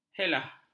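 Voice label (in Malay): "helah", Malay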